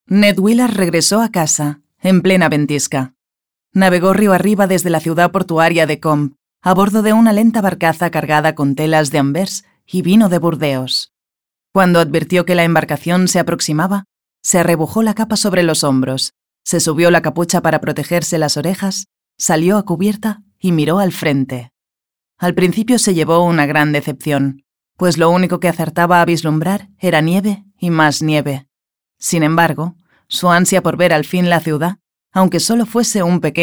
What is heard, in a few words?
spanish narration